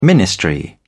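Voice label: "ministry", British English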